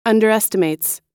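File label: "underestimates", American English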